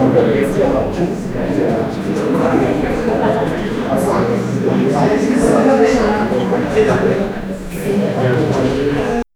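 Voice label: people talking in the background, room tone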